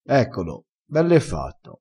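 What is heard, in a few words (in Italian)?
"bell e fatto", vocabolario dialettale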